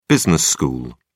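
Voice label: "business school", British English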